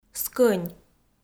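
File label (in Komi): "скӧнь", Komi, female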